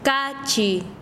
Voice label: Chanka, quechua; sal